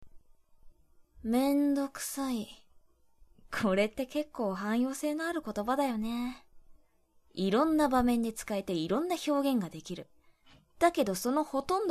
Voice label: haraguroshounen, voice